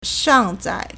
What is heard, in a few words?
"shang4zai4", Chinese